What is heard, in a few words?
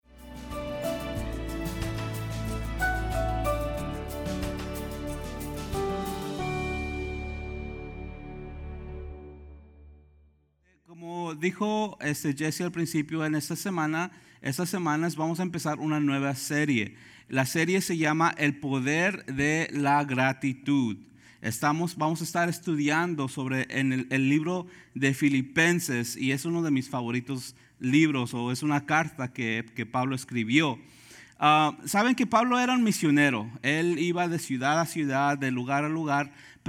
SPAN SERMON